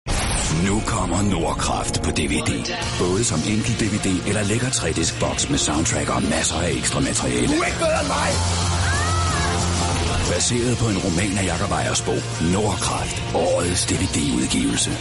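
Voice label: Danish male Commercial; voice over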